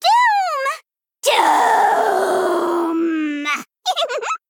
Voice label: Female Gnome TRIGGER DOOM